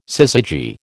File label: "syzygy", American